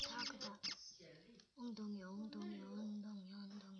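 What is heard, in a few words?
"hip", spoken word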